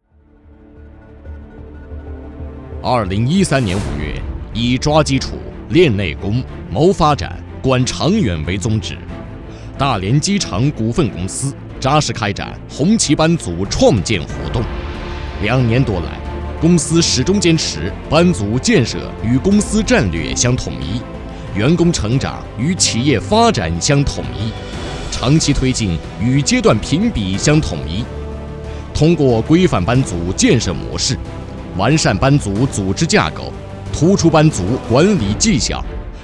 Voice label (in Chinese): 男 专题片 浑厚 洪亮 坚定; 班组; qiyezhuanti; putonghua